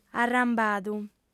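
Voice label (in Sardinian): "arrambadu", female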